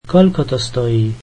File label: how much is this, slovak